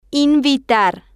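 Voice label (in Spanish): "invitar", Spanish, female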